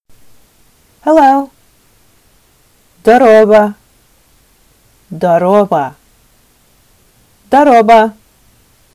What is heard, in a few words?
Sakha Hello